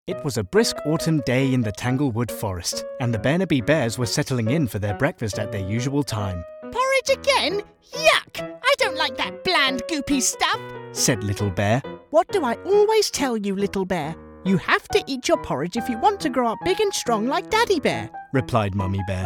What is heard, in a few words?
Narration